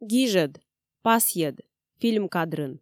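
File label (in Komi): "гижӧд пасйӧд фильм кадрын", Komi, female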